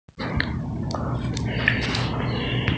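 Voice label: "fuwu", Mandarin Chinese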